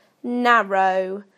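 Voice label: "narrow", British English; PictureDictionary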